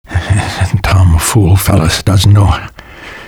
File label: fish mumble; Half-Life 2 Lost Coast